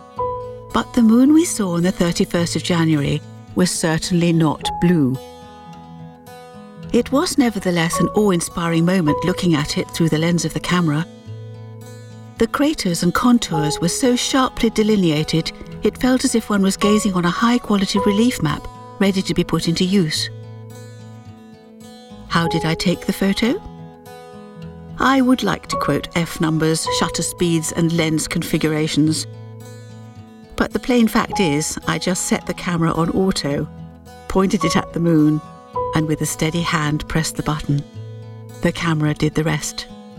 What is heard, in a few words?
Natural voice; Voiceover Soho